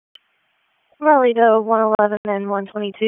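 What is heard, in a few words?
Police Dispatch; Raleigh Police Southwest District Disp; wake; p25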